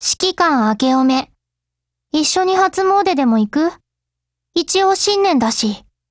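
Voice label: NEW YEAR, Japanese; 80typeMod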